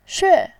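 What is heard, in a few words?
"xue", Mandarin Chinese, tone 4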